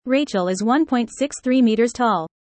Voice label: user fast; how tall